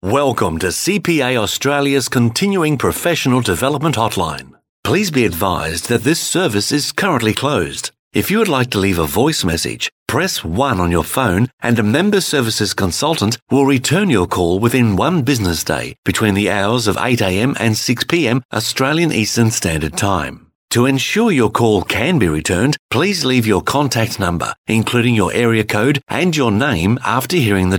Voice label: ivr